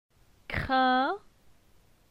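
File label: "Crin", French; phonetique; la syllabe in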